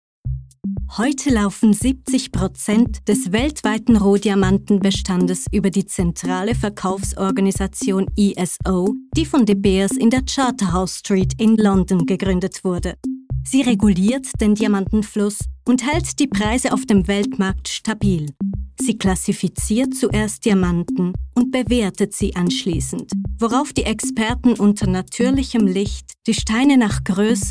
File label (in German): sprecherin sachtext informativ